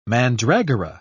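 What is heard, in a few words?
"mandragora", British English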